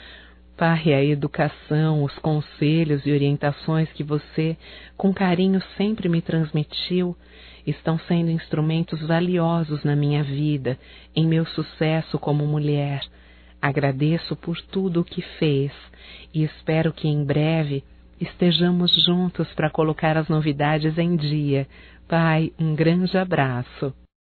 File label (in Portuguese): SAUDADES PAI, Telemensagens, Só Voz, fem